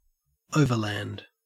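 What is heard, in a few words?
"overland", Australian English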